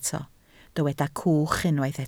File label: "cwch", Welsh